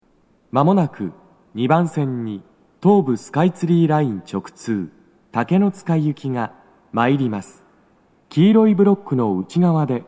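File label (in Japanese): 接近放送, 男声, 六本木駅; 放送は「竹ノ塚行」です。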